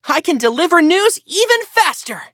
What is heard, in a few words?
levelup, en; Cookie Run